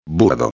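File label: "burdo", Spanish; ortografia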